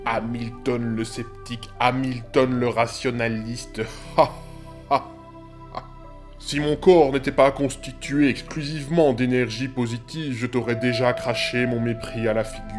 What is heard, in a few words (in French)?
Repondeur Gourou; Le gourou New Age